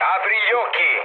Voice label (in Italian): player friendly fire, mood low, speech it; War Thunder